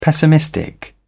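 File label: "pessimistic", British English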